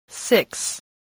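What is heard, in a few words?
"six", spoken word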